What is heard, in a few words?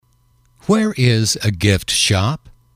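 "where is a gift shop", English, male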